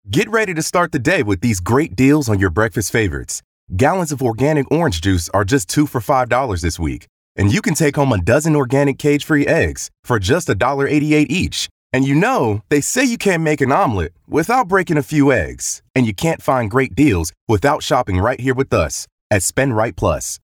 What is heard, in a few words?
Casual Conversational